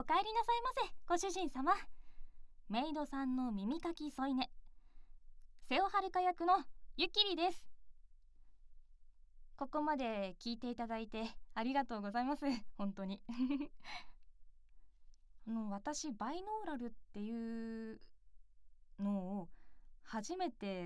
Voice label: フリートーク

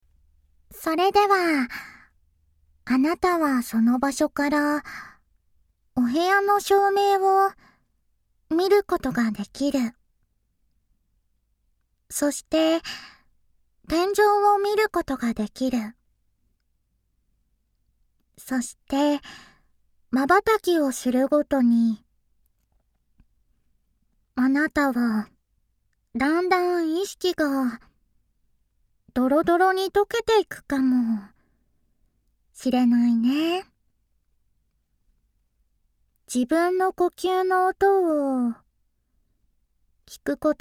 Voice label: 催眠誘導

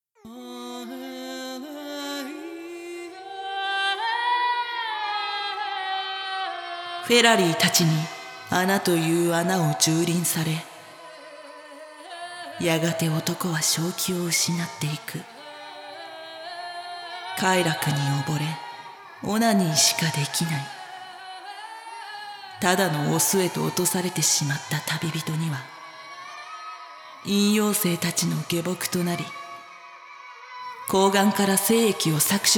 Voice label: ナレーション; 淫妖精の森

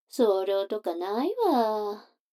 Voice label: 女の子台詞, ASMR

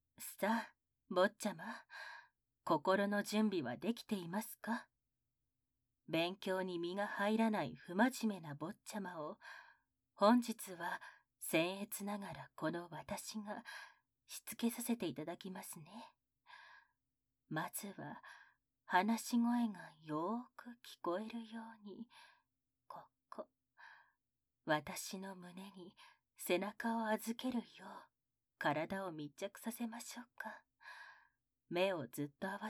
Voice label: 吐息のリズムに合わせて扱くオナサポ, ASMR

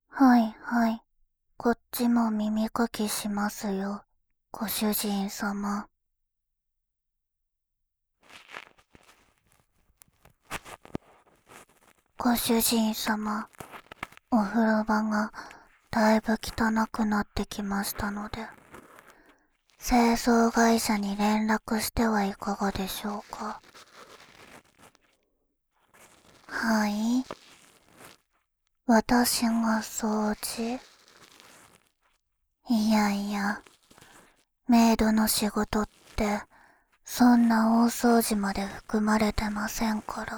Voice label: メイドさんの耳かき; 眠り屋; メイドさんの耳かきえっち2

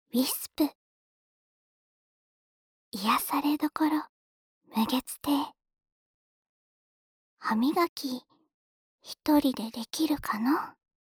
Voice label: タイトルコール

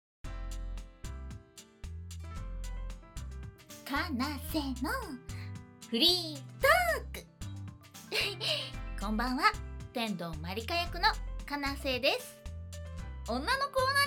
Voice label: フリートーク

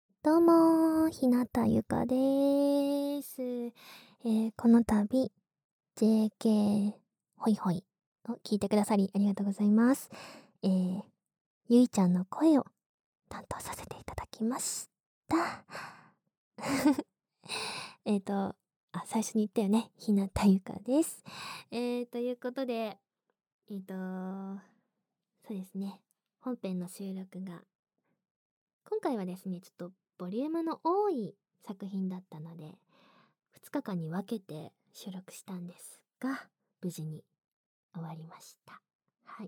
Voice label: フリートーク

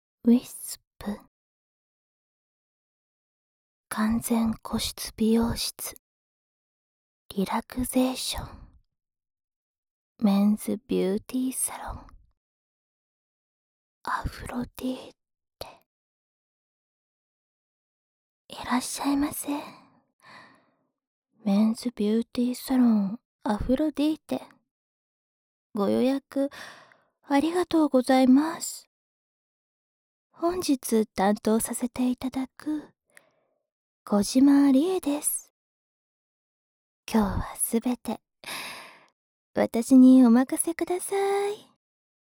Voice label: タイトルコール, ASMR

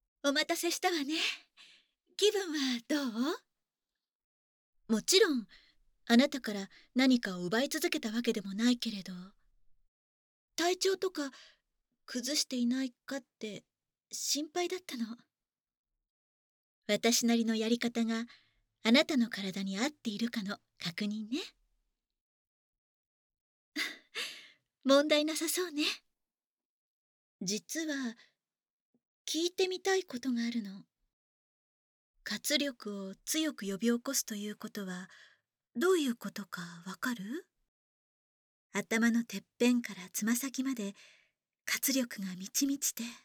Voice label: 癒やしは耳もとから（Voice）, ASMR

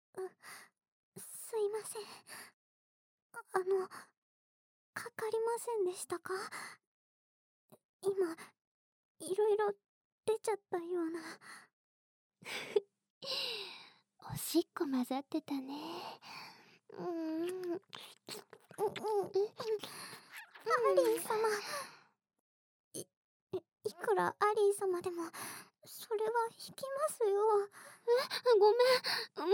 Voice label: 【添い寝】吸血鬼と添い寝 BGMなし, ASMR

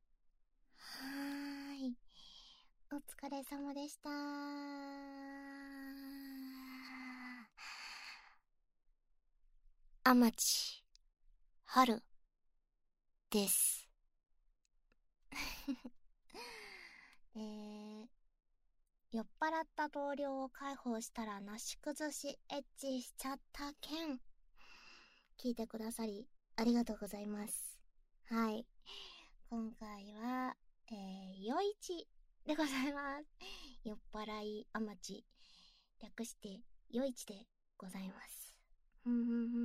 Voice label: アフタートーク, ASMR

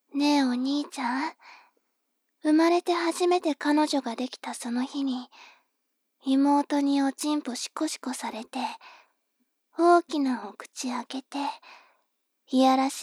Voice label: 妹 台詞

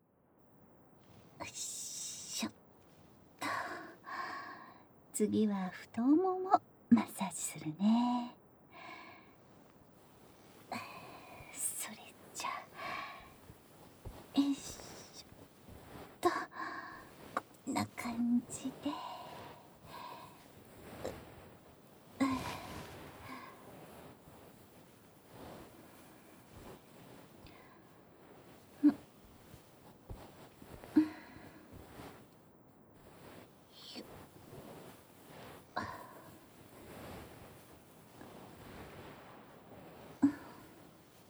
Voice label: 疲れた体を優しくマッサージ(太もも・足もみ), ASMR

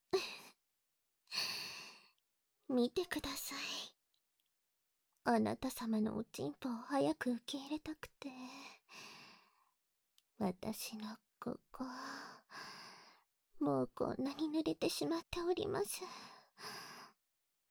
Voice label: 夜伽～囁き乳首舐め連続生中出し～（バイノーラルマイク 効果音あり）, ASMR

